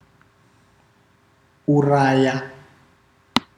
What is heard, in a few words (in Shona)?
kill, Ndau